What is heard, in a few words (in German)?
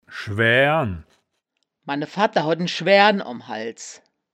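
"schwaeaeren", wittlicher woerterbuch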